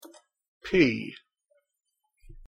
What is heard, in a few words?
"pea", English